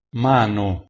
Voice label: "mano", Proto-Germanic